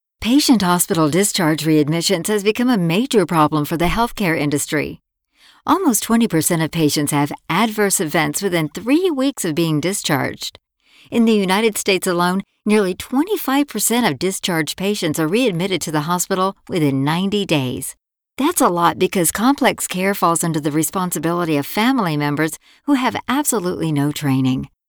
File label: Training Videos VO